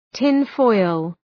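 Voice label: "tinfoil", English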